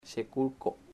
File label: moon, chamacoco